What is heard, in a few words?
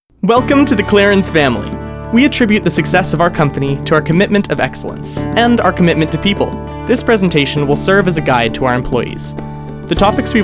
Narration VO